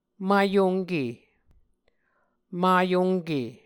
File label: "mayomke", Muscogee